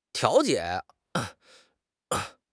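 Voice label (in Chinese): "调解", Chinese; HSK6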